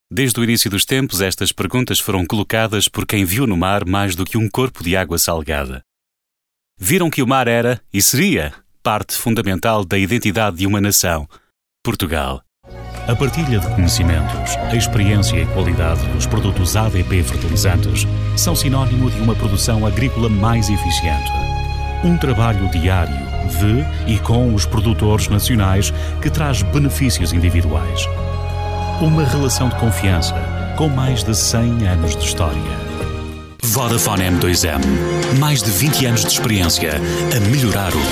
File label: EuroPT Male mix